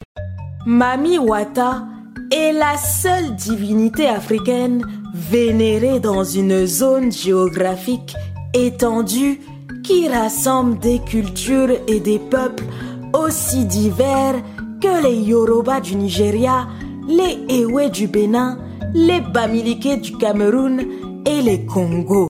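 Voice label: African French, General; GoLocalise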